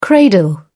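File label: "cradle", British English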